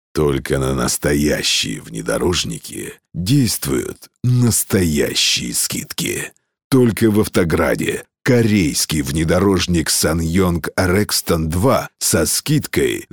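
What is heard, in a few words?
male voice, Russian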